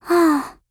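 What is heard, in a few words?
LOW MOOD, Japanese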